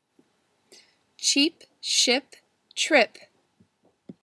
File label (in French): virelangue; comptines et jeux de doigts